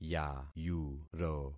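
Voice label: "yayuro", concatenated syllables; Affixing experiment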